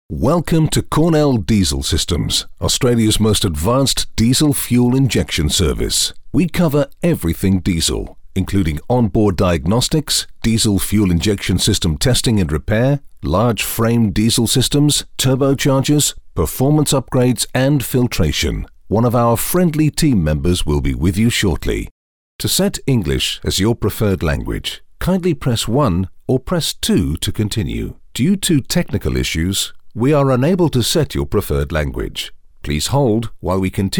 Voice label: telephone